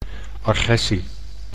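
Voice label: "agressie", Dutch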